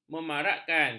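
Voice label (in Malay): "memarakkan", Malay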